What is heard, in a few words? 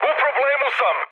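Serbian aircraft damaged mood high, speech; War Thunder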